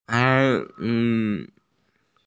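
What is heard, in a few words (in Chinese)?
"a1 yi2" Chinese, female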